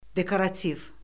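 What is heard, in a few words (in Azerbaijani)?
"dekorativ", Azerbaijani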